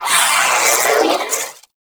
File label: Vocal Death; Warframe; Sentients; Battalyst